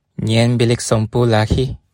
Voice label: toilet, bonggi